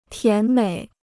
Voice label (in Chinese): "甜美", Chinese